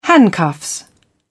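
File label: "handcuffs", British English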